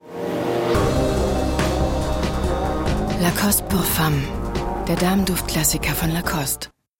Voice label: TV Spot Pour Femme; LACOSTE

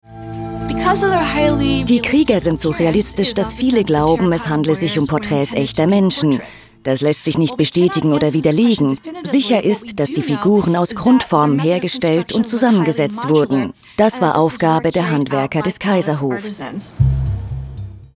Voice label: Over Voice; ZDF; Chinas Drachenkaiser

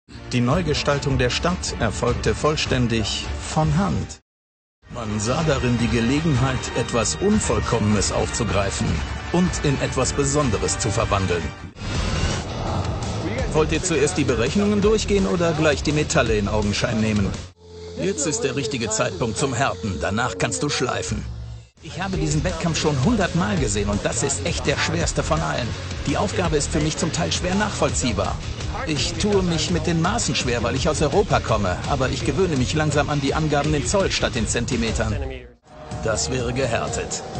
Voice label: Overvoice Trailer; History Channel

